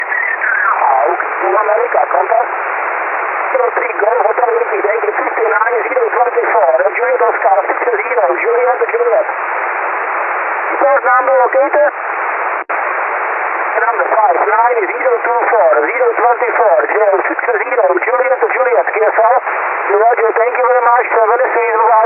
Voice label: 1296 SSB; IARU 2014